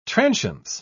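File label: "transience", British English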